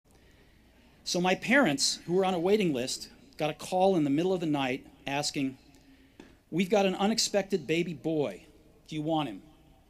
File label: Commencement Address; Steve Jobs; 2005; Stanford